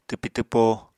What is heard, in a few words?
"twpi twpo", Apatani